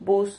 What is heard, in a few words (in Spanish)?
"vus", Silabas